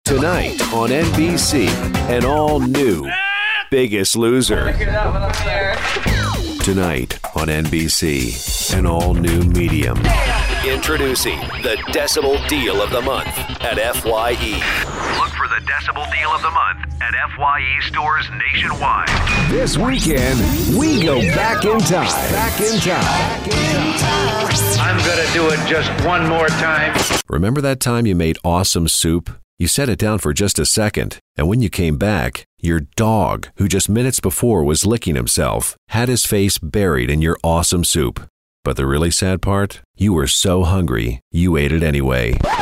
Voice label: Radio TV Imaging